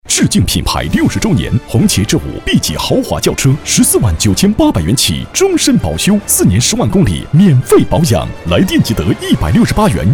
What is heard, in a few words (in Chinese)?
大气广告; 红旗H5